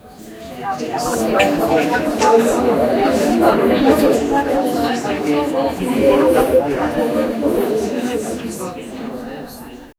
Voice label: people talking quietly wi

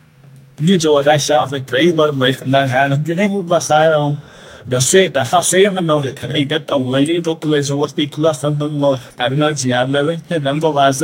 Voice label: someone speaking spanish

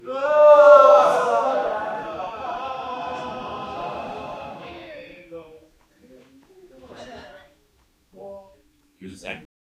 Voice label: male people saying wow; small room

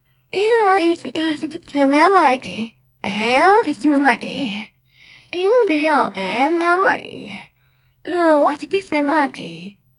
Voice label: help me help me girl

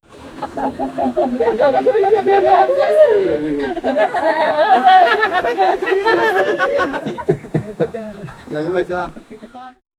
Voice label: african boys talking

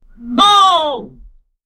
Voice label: generate a short female, ai

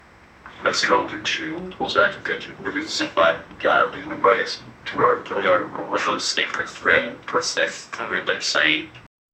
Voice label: media news program, broadcast

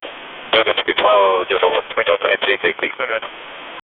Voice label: a man through the radio, mayday

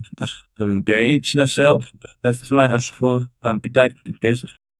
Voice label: voices in arabic and berb

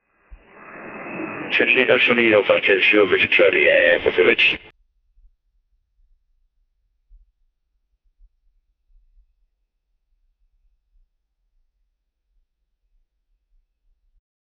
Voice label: a russian man saying hell; human voices

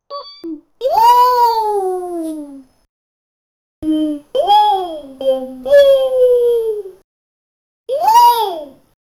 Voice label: funny short cartoon voice; animal crossing; SFX Engine

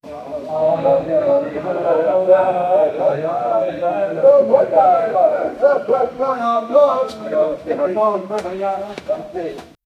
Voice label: muffled sound of men spea; interior

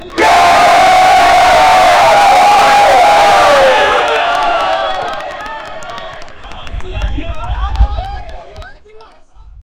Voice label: announcers voice boome

